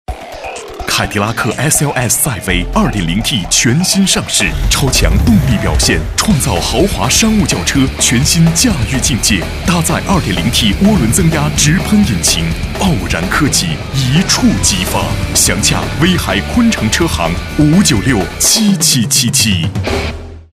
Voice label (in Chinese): 男 广告, 国语男声配音 大气浑厚; 凯迪拉克